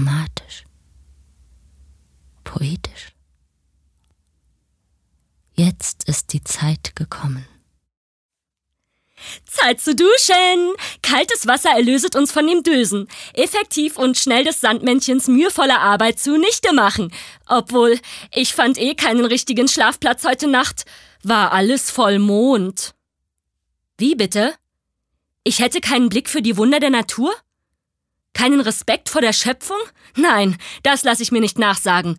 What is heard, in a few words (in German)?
Schauspiel Variabel